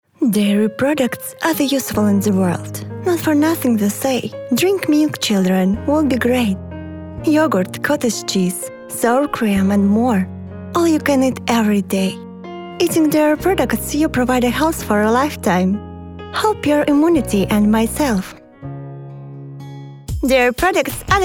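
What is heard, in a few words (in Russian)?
английский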